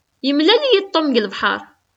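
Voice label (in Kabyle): Yemlal-iyi-d Tom deg lebḥeṛ., Kabyle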